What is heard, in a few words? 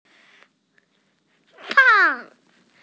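"pang4", Mandarin Chinese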